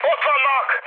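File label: Hungarian enemy detected mood high, speech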